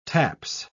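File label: "taps", spoken word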